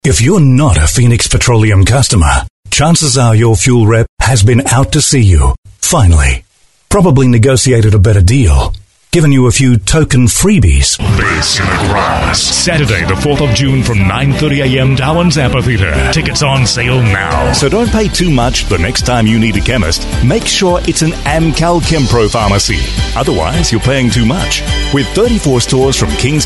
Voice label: male talent retail